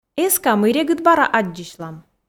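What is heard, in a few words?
Komi, female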